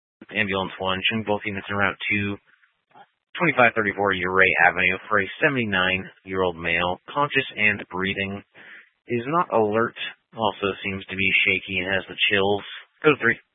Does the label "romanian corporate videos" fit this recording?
No